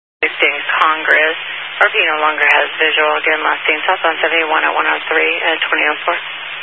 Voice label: Highway Patrol, Phoenix Metro West Area, scanner